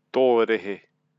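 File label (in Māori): "torehe", Māori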